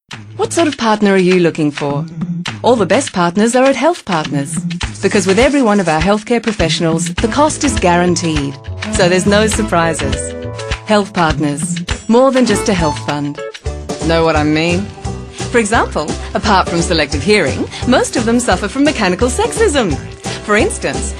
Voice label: Radio TV